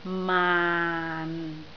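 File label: "maan6", Cantonese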